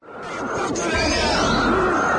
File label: voices in the static